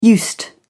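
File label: "used", British English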